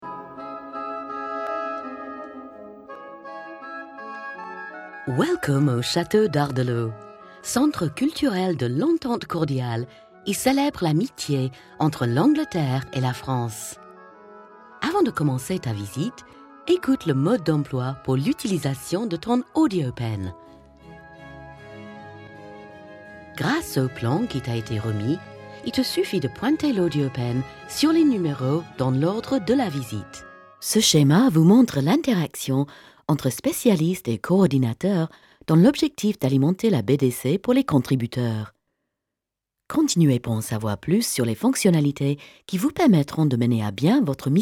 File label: French